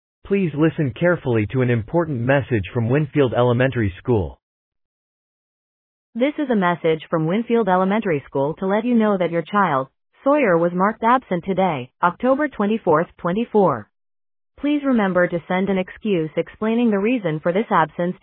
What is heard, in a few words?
Voicemail